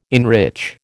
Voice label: "enrich", American